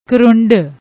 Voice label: "krund", Punjabi; ਕਰੁੰਡ